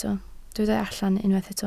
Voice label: "allan", Welsh

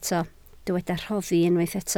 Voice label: "rhoddi", Welsh

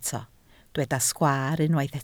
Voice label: "sgwaar", Welsh

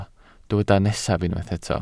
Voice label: "nesaf", Welsh

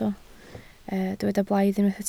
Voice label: "blaidd", Welsh

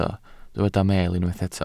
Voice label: "meel", Welsh

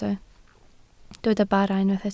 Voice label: "bara", Welsh

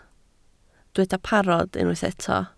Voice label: "parod", Welsh

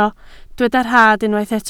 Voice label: "rhad", Welsh

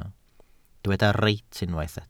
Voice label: "reit", Welsh

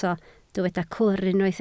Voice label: "cwr", Welsh

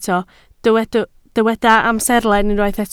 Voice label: "amserlen", Welsh

